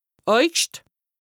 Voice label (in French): "Äugscht", lexique indispensable haut rhin, Alsace; août; Les jours, mois, saisons; D'Daj, Monet, Johreszitte